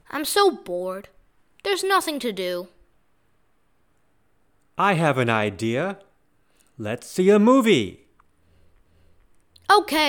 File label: movies dialog